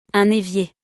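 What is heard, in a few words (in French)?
"Un evier", French; vocabulaire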